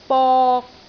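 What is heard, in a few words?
"bok3", Cantonese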